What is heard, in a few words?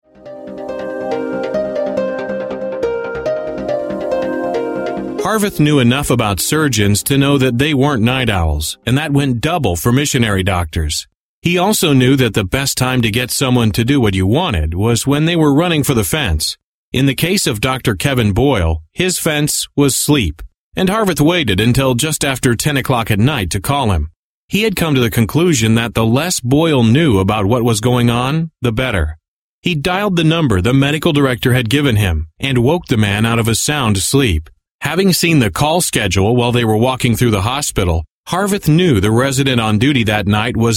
audio book; demo; Voice-over demos